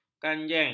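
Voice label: "kangjeng", Malay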